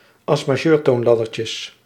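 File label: "As-majeurtoonladdertjes", Dutch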